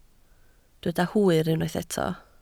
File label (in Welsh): "hwyr" Welsh